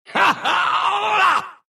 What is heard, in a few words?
attack big, ru; Monkey; dota2